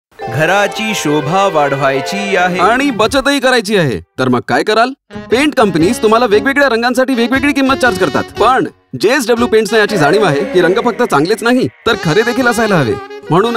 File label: TVC Dub Marathi; JSW Paints